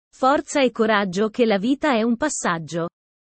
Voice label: italian sayings about life